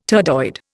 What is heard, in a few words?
"turdoid", Indian